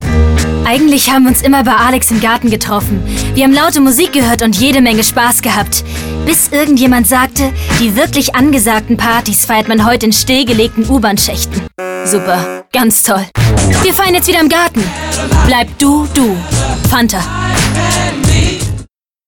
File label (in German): Werbung; Fanta